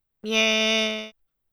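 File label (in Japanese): "みぇ", Japanese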